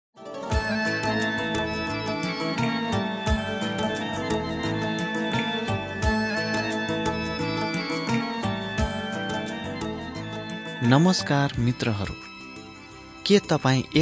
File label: mighty men of god nepali